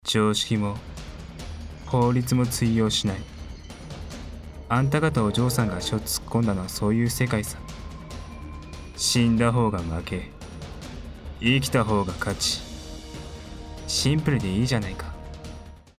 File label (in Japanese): akuyaku